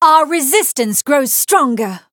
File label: Female Human Emote Greetings; BaconShop